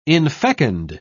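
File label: "infecund", British English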